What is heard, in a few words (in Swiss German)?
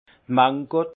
"mango", alsace; vin; mangue